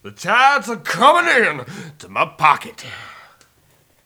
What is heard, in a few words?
Preacher Win; blackjack